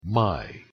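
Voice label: "my", English pronunciation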